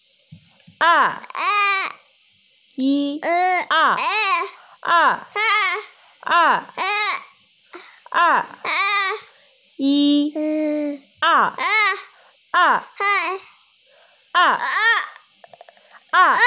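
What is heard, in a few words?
"er4", Mandarin Chinese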